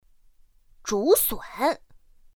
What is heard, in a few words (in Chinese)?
"zhúsǔn", pinyin; pinyin2; pinyinji